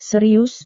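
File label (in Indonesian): "serius", Indonesian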